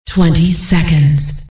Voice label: end 20 sec, time left, en, female; hl2dm; unreal